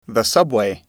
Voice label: "subway", English

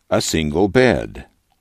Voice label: "a single bed", english

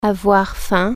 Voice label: "get angry", French

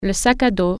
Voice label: "backpack", french

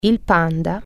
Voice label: panda, italian

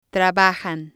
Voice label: "trabajan", Spanish

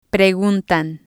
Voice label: "preguntan", spanish

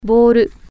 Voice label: ball, japanese

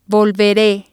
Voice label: "volvere", Spanish

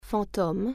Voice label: "ghost", French

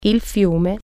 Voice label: river, italian

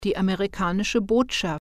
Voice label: "american embassy", german

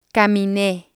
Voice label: "camine" Spanish

